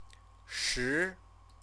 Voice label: "shi", Chinese; ten; number

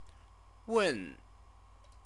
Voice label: "wen", Chinese

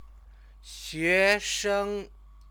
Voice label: "xuesheng", Chinese

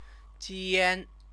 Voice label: "jian", Chinese

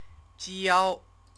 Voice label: "jiao", Chinese

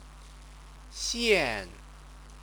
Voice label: "xian", Chinese

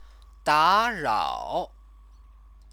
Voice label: "darao", Chinese; 打 扰; disturb; verb

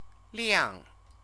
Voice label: "liang", Chinese

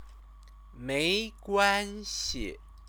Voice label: "meiguanxi", Chinese; Chinese lessons

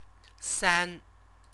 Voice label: "san", Chinese

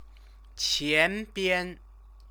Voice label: "qianbian", Chinese

